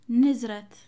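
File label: "vibration", Amharic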